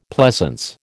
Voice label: "pleasance", American